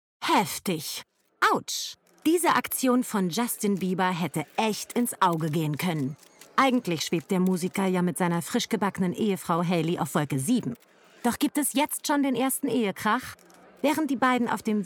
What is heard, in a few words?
Voice Over; Jusin Bieber on set